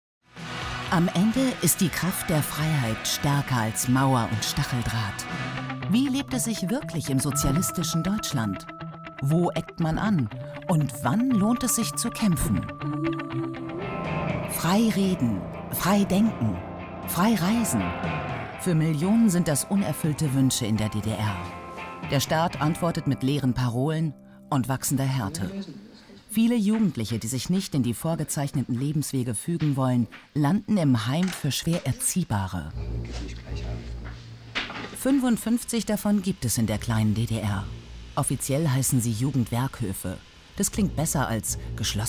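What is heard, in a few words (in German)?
Sprachprobe, Sprecherin; Die DDR Nicht alles war schlecht; ZDF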